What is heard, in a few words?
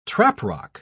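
"traprock", British English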